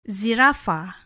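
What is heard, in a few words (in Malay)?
"zirafah", Malay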